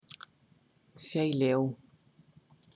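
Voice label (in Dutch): "Zeeleeuw", Dutch; marine-mammals; Sea-lion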